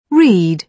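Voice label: "read", British English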